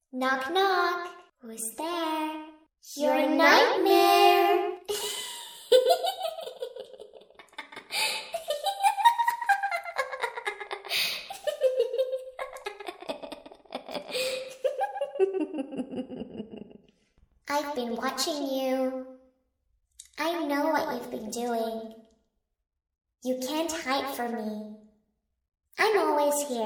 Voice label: creepy little girl talking scary voice horror sounds